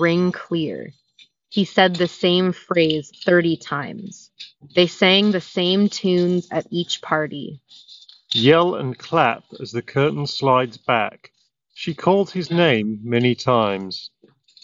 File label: Office microphone sample; Nothing Ear Stick